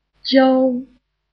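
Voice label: "jiao1", Chinese; shuohanyu; dictees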